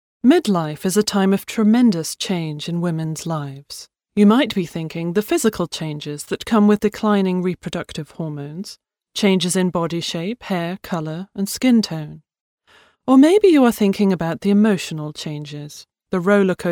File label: english british narration